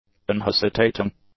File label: "unhesitating", english; adjectives